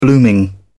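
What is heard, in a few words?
"blooming", British English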